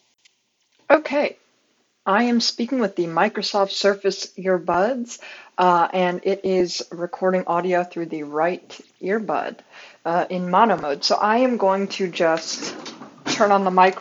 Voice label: Microsoft Surface Earbuds microphone demo